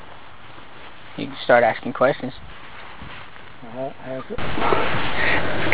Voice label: evp whisper from the grave